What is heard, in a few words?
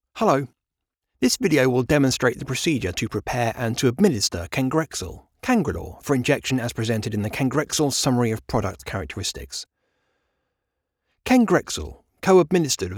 english british medical narration; British English male voiceover